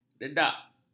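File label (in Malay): "dedak", Malay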